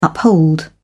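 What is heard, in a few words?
"uphold", British English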